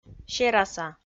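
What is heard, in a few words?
"сераса", Komi, female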